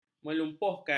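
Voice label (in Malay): "melumpuhkan", Malay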